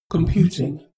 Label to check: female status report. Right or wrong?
Right